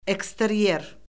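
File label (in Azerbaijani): "eksteryer", Azerbaijani; Orfoqrafiya Lüğəti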